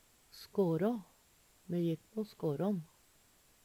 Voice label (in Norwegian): "skara", Numedalsmål; Substantiv hankjønn; Me jekk på skårån.